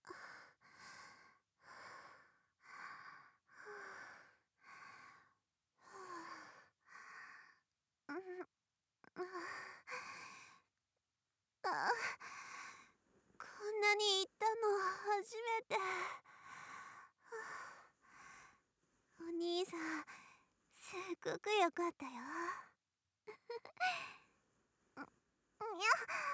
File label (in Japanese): ピロートーク